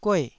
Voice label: "gueh4", taiwanese